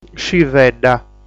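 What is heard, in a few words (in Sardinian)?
"Scivedda", Sardinian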